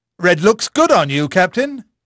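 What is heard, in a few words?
English male; Pirates Of The Caribbean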